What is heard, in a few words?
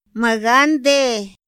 "ma gande", Otomí; Días de la semana; INPI